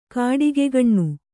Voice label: "ಗಿಡಗಕಣ್ಣು", kannada

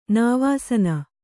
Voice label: "ನಿವಸನ", Kannada

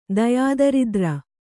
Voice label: "ದಯಾದರಿದ್ರ", Kannada

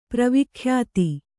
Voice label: "ಪ್ರವಿಖ್ಯಾತಿ", Kannada

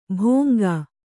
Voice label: "ಭೋಂಗಾ", Kannada

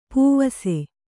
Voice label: "ಪೂವಸೆ", Kannada

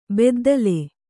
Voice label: "ಬುದ್ದಲಿ", Kannada

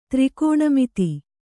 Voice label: "ತ್ರಿಕೋಣಮಿತಿ", Kannada

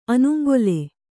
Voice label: "ಅನುಂಗೊಲೆ", kannada

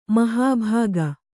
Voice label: "ಮಹಾಭಾಗ", Kannada

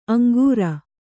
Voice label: "ಅಂಗರ", Kannada

